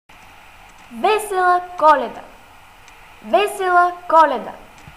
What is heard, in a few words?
merry christmas, Bulgarian; Весела Коледа